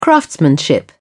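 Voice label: "craftsmanship", British English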